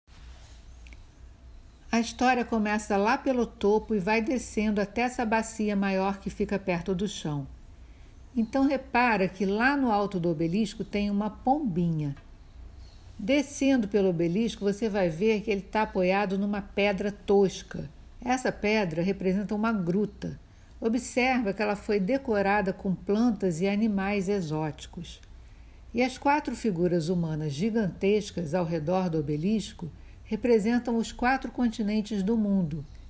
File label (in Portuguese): Audioguia resumido Piazza Navona FONTANA 4 FIUMI DETALHES